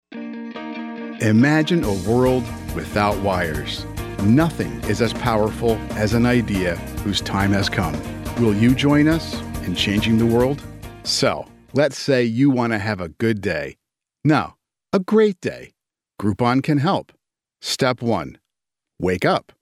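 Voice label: voice over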